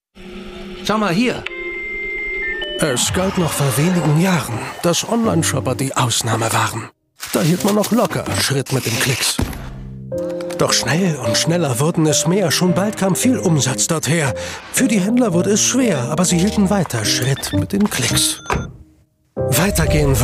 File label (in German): DHL-Gedicht, werbung